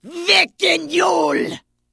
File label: Vrykul Female Warrior Farewell